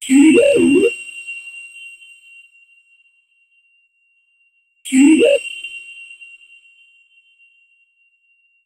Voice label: robotic but distinctly; clear